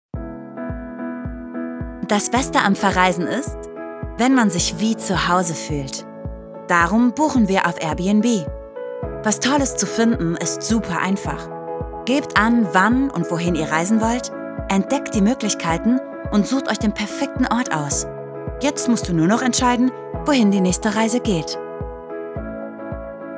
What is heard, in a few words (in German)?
Werbung; Airbnb